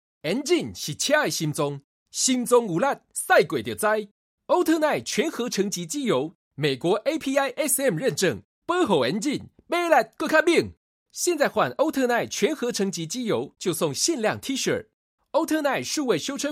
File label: taiwanese commercial